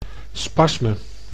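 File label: "spasme", Dutch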